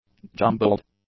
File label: "jumbled", english; adjectives